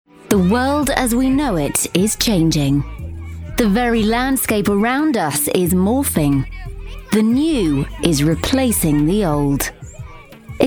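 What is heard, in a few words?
English British, female, narration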